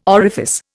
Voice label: "orifice", Indian